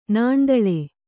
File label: "ನಾಣ್ದಳೆ", Kannada; nāṇdaḷe; (Verb); = ನಾಣ್ಚು.